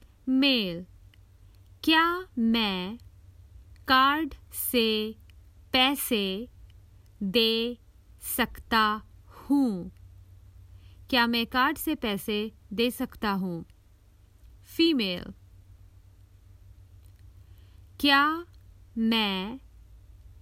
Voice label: May I pay with credit card, Common Hindi Phrases for travel